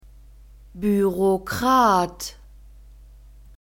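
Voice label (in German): "Bürokrat", German; masculine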